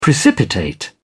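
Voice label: "precipitate", British English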